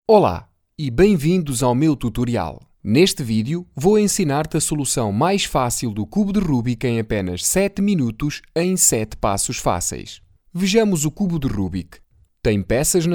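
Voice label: Portuguese Brazilian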